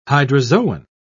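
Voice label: "hydrozoan", British English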